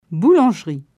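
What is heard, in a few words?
"Boulangerie", French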